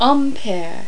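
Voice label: "impair", French; Virtual Casino: Secret Fantasies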